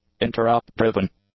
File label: "interrupt-driven", english; adjectives